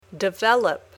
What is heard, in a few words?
"develop", English